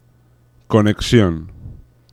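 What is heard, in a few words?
"conexion", Spanish; laboratori fonetica; paraules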